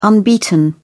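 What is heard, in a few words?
"unbeaten", British English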